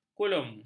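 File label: "kulum", Malay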